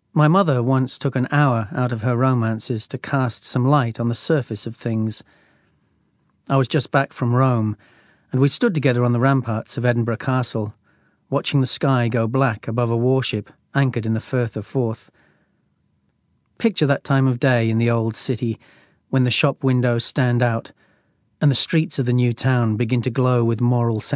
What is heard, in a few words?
narration